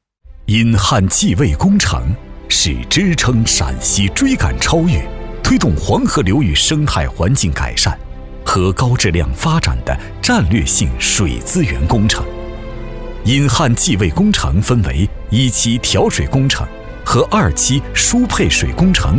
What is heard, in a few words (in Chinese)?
男 宣传片 水土保持